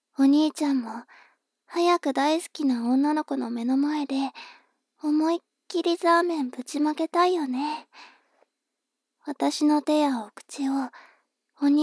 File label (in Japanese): 妹 台詞